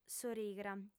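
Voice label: "s'origra", lingua sarda, female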